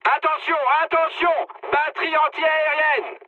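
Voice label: damaged aa, mood high, French; War Thunder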